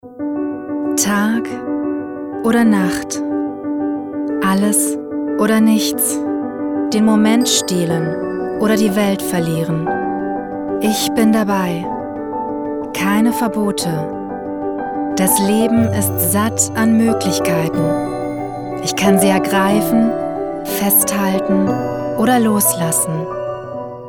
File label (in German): de werbung